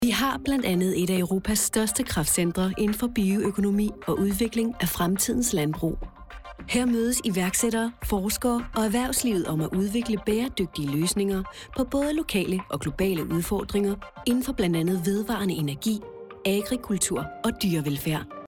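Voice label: Danish, female, e-learning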